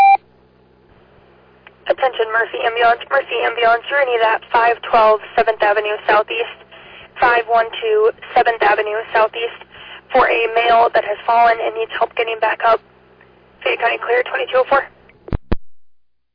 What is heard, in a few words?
Oelwein Ambulance, dispatch